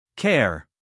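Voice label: "care", American English, male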